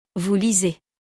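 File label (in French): "vous lisez", French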